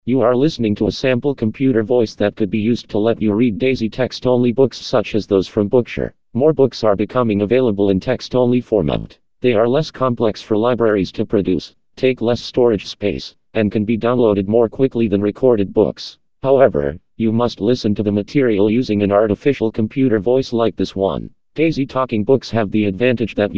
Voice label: English US Male